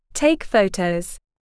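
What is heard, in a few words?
"take photos", English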